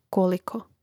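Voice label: "koliko", Croatian; Mrežnik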